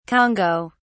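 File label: "congo", British English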